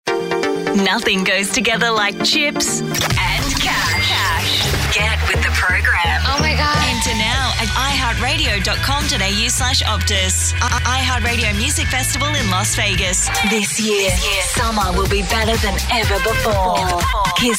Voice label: Promo Voice Over